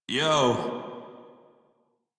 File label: Crew Greet Male en; Dialog; Warframe; Railjack Crew; Red Veil